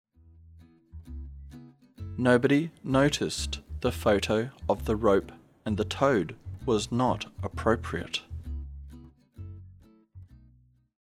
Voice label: slow, diphthong əʊ as in home